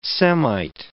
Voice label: "Semite", English

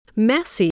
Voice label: "messy", voice, spelling

